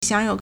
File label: "xiang3you3", Chinese